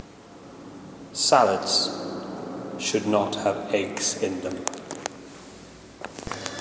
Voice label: extremely short boo about salads and eggs in an echoey stairwell